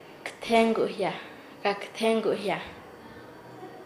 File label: Nasa Yuwe; hacer golpear; paez